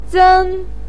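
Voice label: "zen" first tone, Mandarin Chinese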